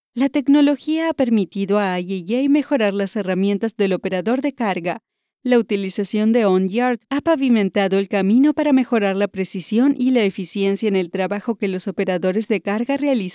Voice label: e-learning LatAm, voice-overs